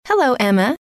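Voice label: hello, female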